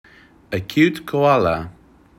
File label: "a cute koala", English